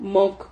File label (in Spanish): "mok", Silabas